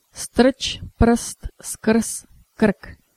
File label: strc prst skrz krk slow